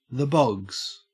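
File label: "bogs", Australian English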